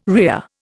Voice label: "rhea", Indian

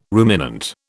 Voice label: "ruminant", British

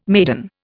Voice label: "maiden", Indian

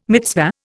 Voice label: "mitzvah", Indian

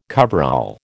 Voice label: "coverall", American

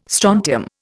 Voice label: "strontium", Indian

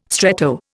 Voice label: "stretto", Indian

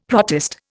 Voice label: "protist", Indian; Dictionary

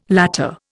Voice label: "latter", Indian

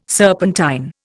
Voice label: "serpentine", Indian

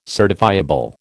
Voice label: "certifiable", American

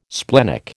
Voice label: "splenic", American

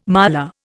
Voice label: "miler", Indian

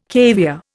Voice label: "caviare", Indian

